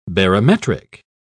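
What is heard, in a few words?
"barometric", British English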